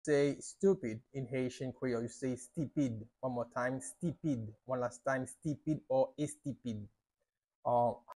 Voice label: "Stipid" Haitian Creole pronunciation by a native Haitian teacher; How to say Stupid in Haitian Creole